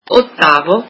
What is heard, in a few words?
eighth, italian